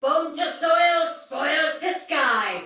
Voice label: See Player Shout Soldier Female, Battle; Dialog; Sinecure